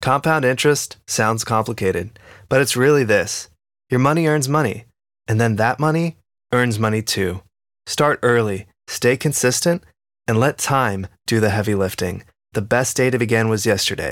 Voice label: elearning financial literacy; course